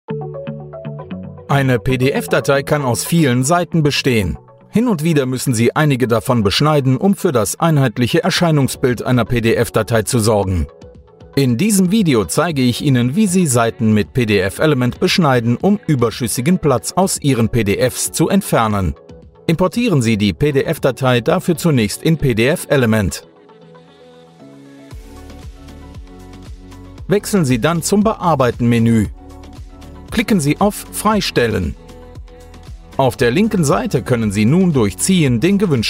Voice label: german e-learning